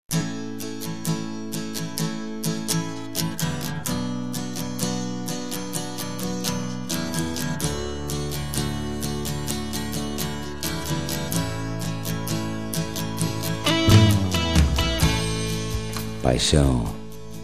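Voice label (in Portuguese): Namorados pra Namoro Avancado, male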